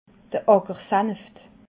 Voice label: "ackersaneft", alsace

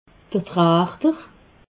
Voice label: "trachter", alsace

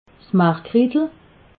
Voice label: "margritel", alsace

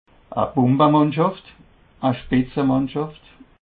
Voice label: "bumbamannschaft", alsace

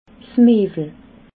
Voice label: "mewel", alsace

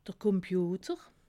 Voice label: "komputer", alsace

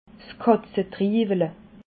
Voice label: "katzetriwele", alsace

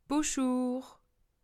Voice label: "buschur", alsace

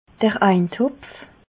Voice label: "eintopf", alsace

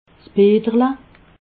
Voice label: "peterle", alsace

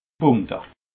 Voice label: "punkte", alsace

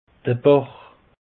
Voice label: "bach", alsace; peche

